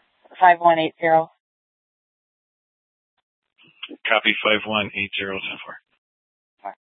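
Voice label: Scottsdale Police Department South Dispatch; Regional Wireless Cooperative (RWC) - Simulcast H- Scottsdale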